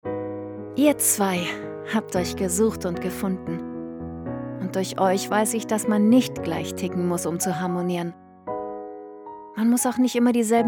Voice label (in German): Traurede